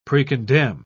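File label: "precondemn", British English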